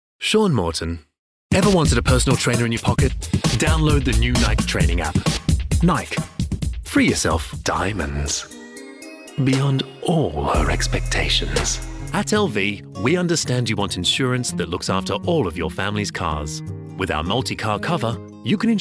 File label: Voiceovers COMMERCIAL VOICEREEL